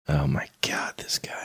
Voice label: whispering